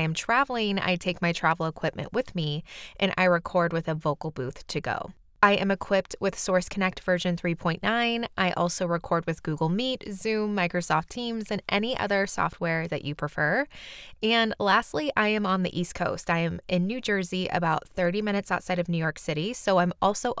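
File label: Home Studio Sample, New Zealand English; Specs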